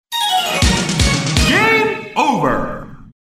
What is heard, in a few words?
Game Over (Male); arcade; Dance Super Station